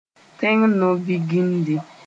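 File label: "tẽng no-vigindi", Mooré; constitution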